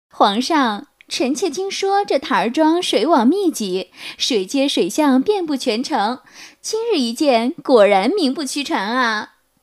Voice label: 女 角色模仿 皇妃

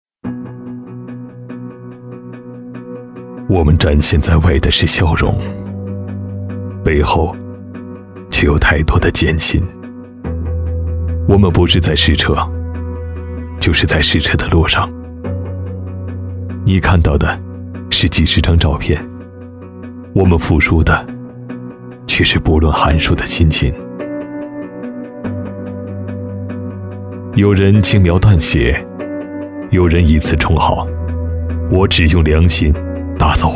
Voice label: 男 专题 品质质感, 国语男声配音; World without end

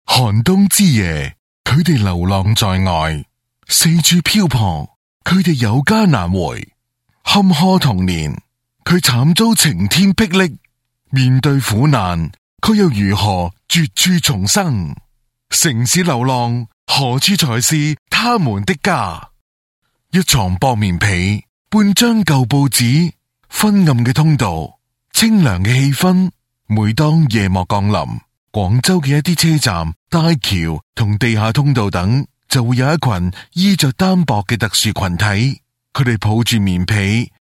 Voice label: 粤男 粤语男声旁白

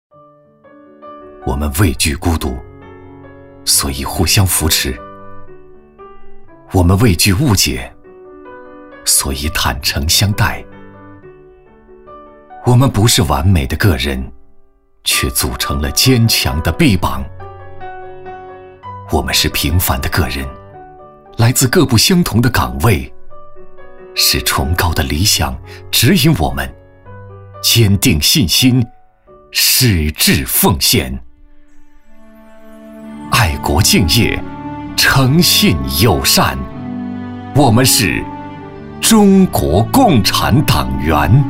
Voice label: 男 共产党员宣传片 自述类

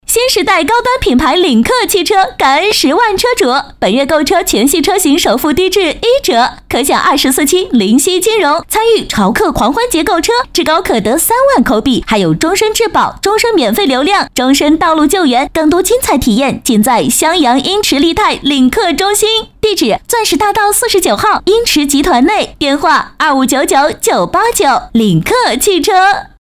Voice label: 女, 广告, 汽车干声; 领克

